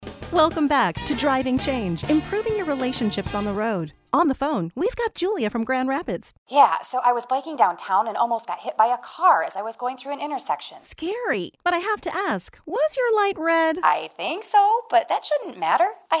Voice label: Radio Bicyclist